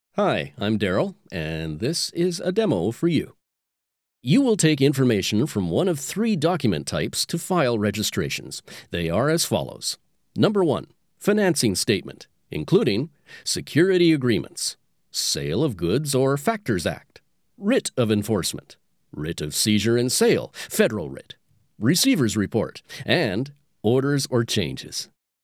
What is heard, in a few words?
Narration